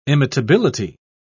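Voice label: "imitability", British English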